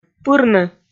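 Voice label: "пырны", Komi, female; kpv audio dict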